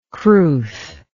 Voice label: "crwth", english

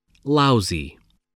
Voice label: "lousy", spelling

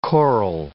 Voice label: "choral", English

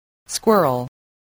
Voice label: "squirrel", voice

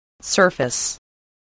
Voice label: "surface", English

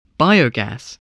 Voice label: "bio-gas", spelling

